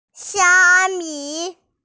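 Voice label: "xia1mi5", Chinese, female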